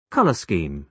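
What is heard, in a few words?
"colour scheme", British English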